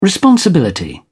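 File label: "responsibility", British English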